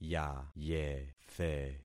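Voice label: "yayethe", concatenated syllables; Affixing experiment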